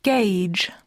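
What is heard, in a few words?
"gauge", English; poitiers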